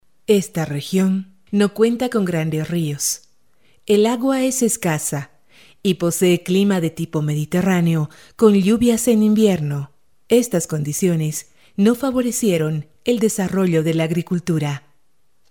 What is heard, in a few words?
locutora boliviana bolivian female voiceover